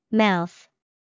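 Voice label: "mouth", English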